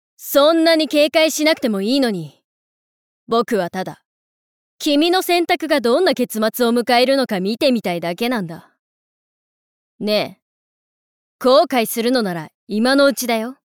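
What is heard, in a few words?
Boy, Japanese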